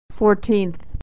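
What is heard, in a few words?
"fourteenth", American English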